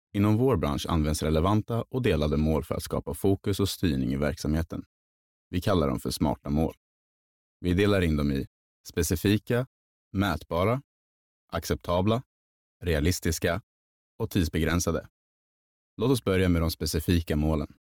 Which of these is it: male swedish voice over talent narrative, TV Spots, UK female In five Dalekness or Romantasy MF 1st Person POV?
male swedish voice over talent narrative